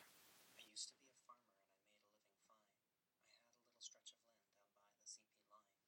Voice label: headset test spoken 2ft